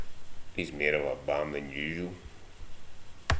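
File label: "bam", slang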